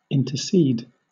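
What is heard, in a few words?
"intercede", British English; pronunciations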